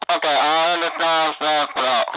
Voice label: Radio CB Chatter; SFX Kit; Foley - Misc